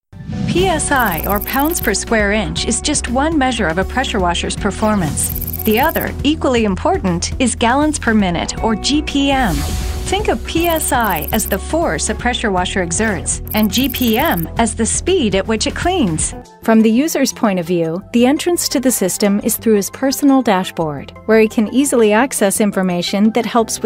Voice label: eLearning